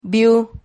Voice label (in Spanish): "viu", Silabas